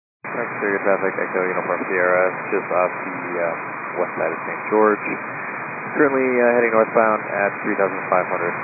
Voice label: 122 925MHz, scanner radio